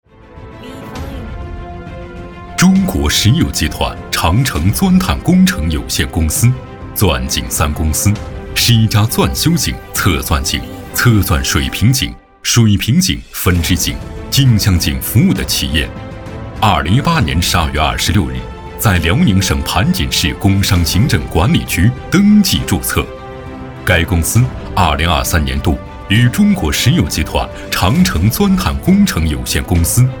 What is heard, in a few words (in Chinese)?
男 专题 工程 片 大气严肃; 鄂托克旗陆上天然气安全生产警示教育